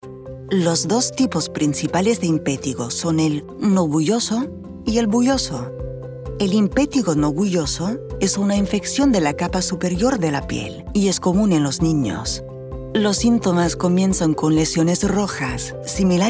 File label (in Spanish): Medical Explainer International Spanish